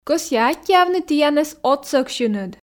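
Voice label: Komi sentences, female; кӧсъя аттьӧавны тіянӧс отсӧгсьыныд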